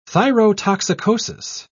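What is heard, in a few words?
"thyrotoxicosis", British English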